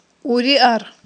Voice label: "уриар", Aghul